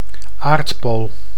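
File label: "aardpool", Dutch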